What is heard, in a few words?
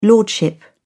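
"lordship", British English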